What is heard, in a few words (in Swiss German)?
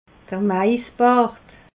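"maisbart", Alsatian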